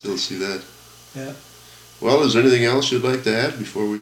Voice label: Personal history with 66 year old male Buhl Minnesota, american english dialect